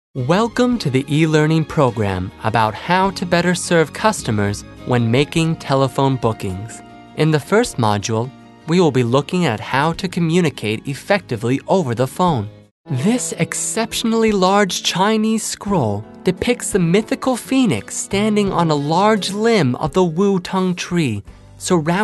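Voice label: American, narration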